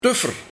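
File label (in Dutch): "Tuffer", dialect; 1 Iemand die spuwt; 2 Moto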